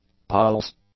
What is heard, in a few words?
"pulse", English; nouns